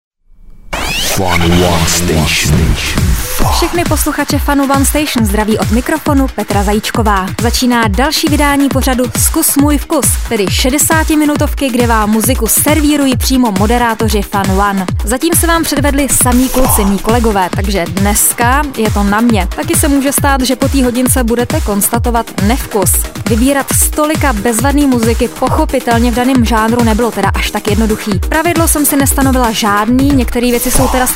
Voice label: Fun, zensky voiceover; profesionální ženský voiceover

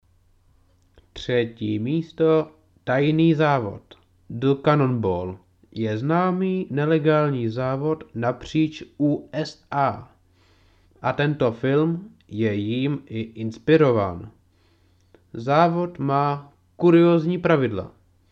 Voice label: profesionalni muzsky hlas

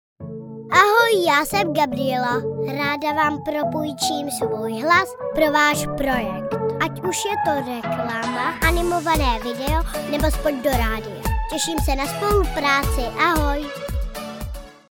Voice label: dětský voice-over (dívka 6 let); Profesionální dětský voice-over (dívka 6 let)

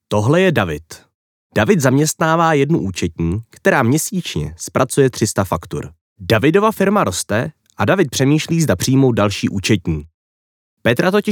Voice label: Overvoice, krasny muzsky hlas; DOCU-X

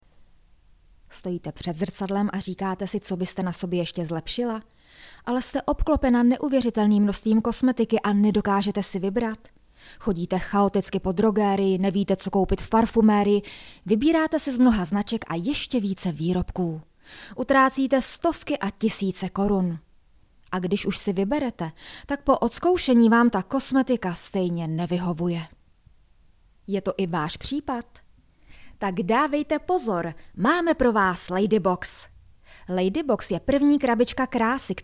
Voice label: zensky voiceover; profesionální ženský voiceover; Ladybox

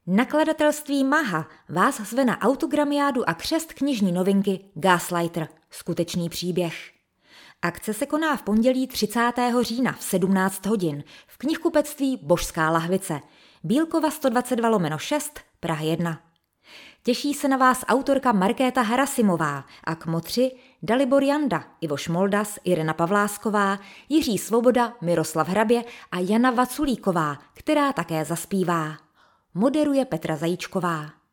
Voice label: pozvanka, profesionalni zensky voiceover

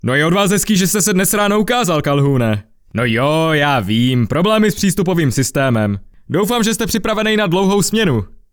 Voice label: Dabing postavy, muzsky voiceover

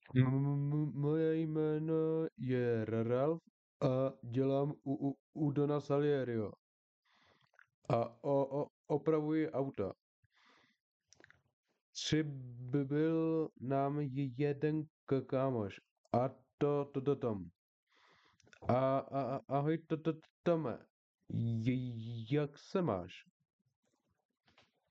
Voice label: styl, profesionalni muzsky hlas

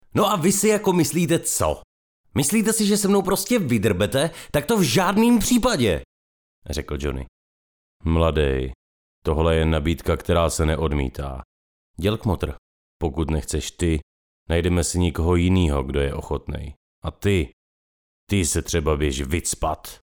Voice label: profesionalni hlas

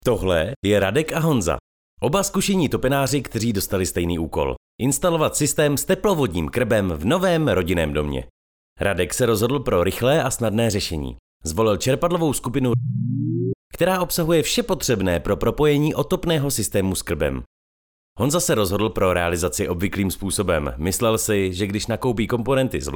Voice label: profesionalni hlas

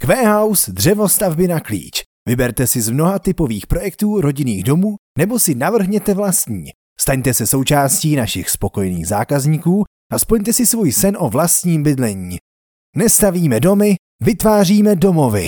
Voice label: muzskeho hlasu pro produktova videa

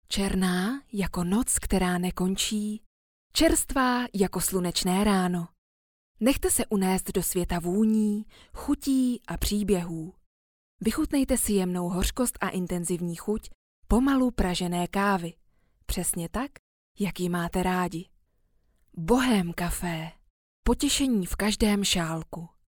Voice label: ukazka reklama

